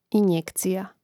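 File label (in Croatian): "injekcija", hrvatski; mreznik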